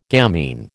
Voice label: "gamine", American